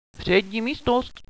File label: front room, radio bot